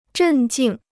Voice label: "镇静", Chinese